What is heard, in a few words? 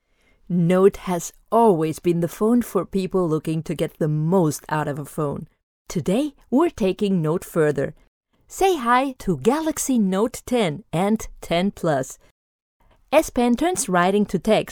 spanish accented en; galaxy Note